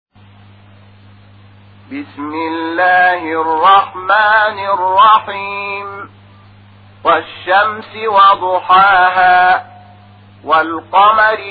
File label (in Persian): ترتیل قرآن کریم سوره شمس; محمود شحات انور